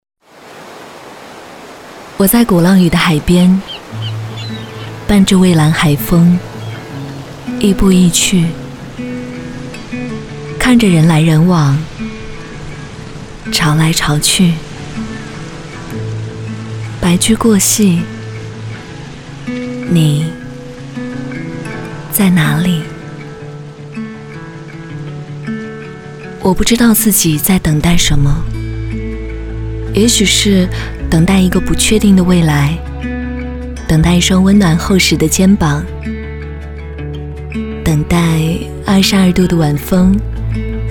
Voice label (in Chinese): 独白 小情书